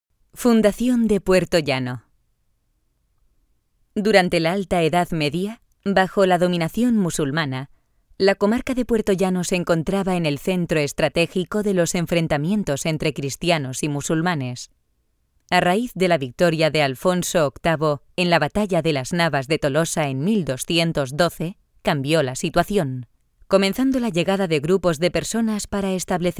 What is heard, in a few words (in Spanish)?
audioguia; audioguia museo de la mineria; esp